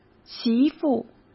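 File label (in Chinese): "媳妇", Mandarin; chinese flashcards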